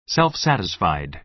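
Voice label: "self-satisfied", American English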